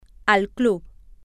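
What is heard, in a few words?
toTheClub, Spanish, female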